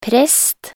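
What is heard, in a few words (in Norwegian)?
"prest", Norwegian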